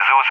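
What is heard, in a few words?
"Zeus", English speech; Allied Flight; AirDefence; Zeus (ZU-23-4); DCS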